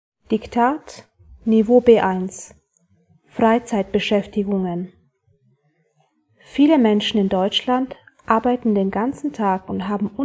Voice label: German dictation level B1